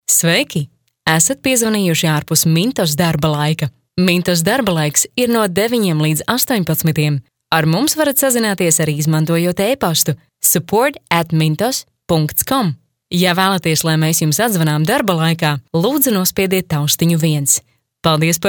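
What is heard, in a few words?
Latvian, female; balsai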